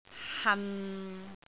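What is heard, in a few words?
"han6", Cantonese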